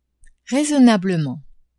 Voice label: "raisonnablement", French; adverbs